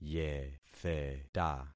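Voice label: "yetheta", concatenated syllables; Affixing experiment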